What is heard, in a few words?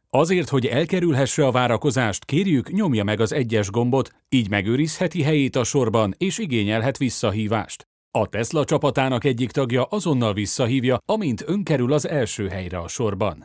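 Hungarian male IVR; voice over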